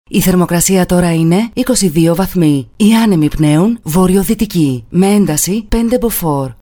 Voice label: weather female